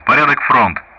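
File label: Speech Sound Russian; DCS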